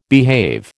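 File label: "behave", American English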